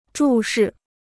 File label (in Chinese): "注视", Chinese